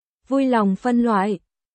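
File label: Vietnamese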